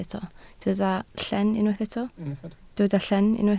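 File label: "llen" Welsh, spoken word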